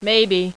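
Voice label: "maybe", female, voice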